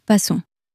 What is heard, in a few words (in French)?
"passons", French